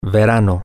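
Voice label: summer, Spanish, male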